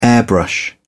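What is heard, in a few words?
"airbrush", British English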